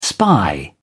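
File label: "spy", English, British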